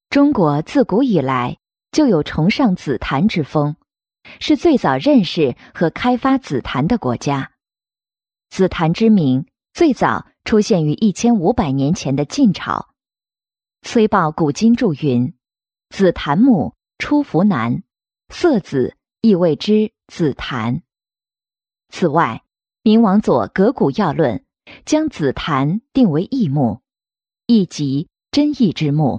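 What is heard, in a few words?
Chinese, female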